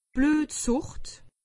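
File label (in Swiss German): "bluetsuscht", Alsatian; medecine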